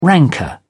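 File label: "rancour", British English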